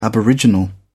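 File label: "aboriginal", British English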